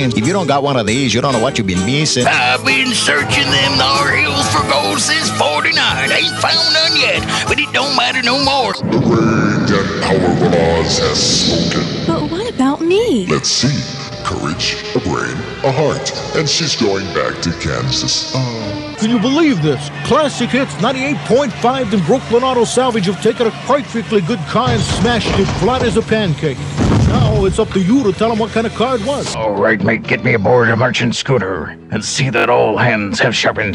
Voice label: Voice Characters

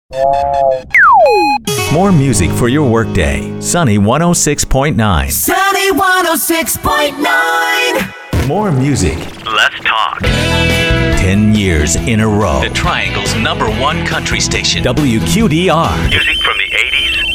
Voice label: Imaging, voice

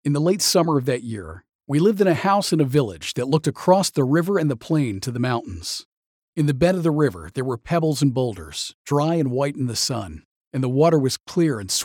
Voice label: Audio Book Narration, voice

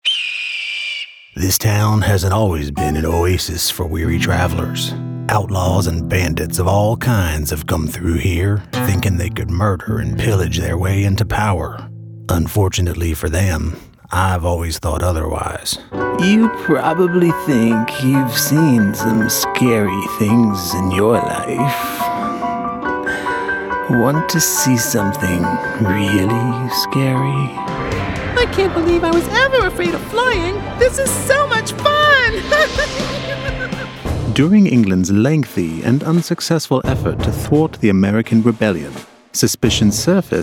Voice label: Character VO

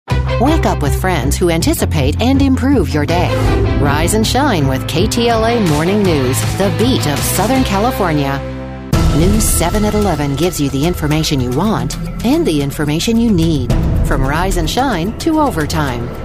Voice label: Promo, voice; AffiliateTV

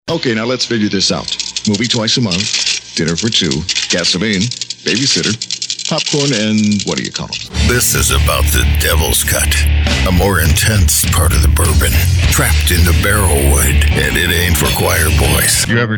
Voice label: Voice Commercials

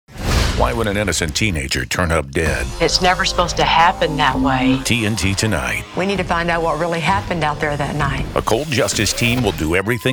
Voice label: Promo

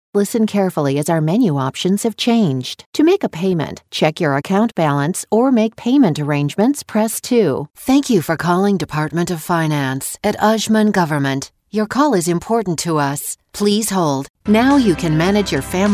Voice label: Phone, voice

